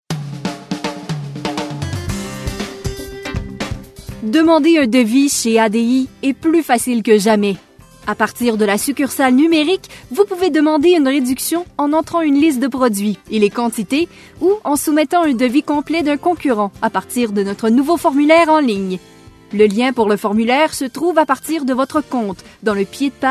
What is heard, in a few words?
On Hold French